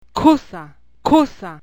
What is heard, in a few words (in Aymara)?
"khusa", Aymara